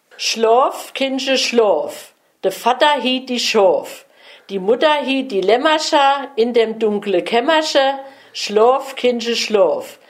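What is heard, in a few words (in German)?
kinderreim schlof kindche schlof, O-Ton Hunsrück; Unzenberg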